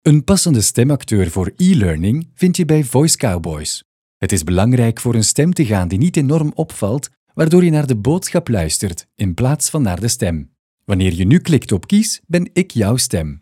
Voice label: ELEARNING